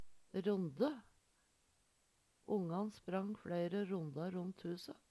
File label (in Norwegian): ronde, numedalsmal